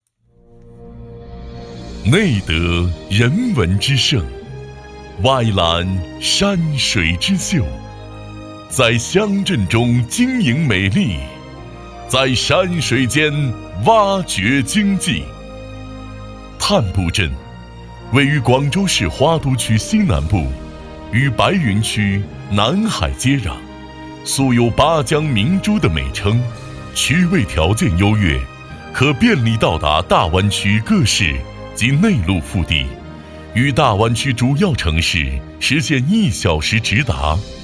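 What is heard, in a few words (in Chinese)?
男【宣传片】招商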